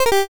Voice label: pico angry